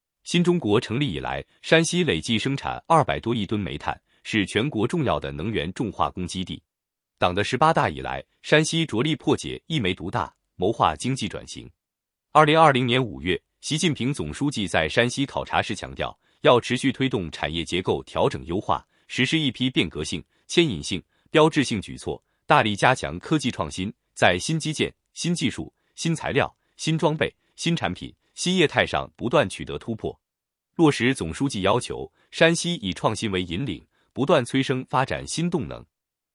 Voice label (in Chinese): 新闻稿试音, 大气男声, 男, 中年（36-54）, 普通话